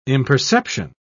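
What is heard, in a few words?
"imperception", British English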